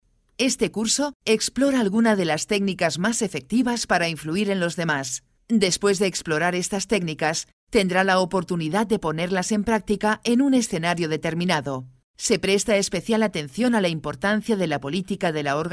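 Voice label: Spanish, female, elearning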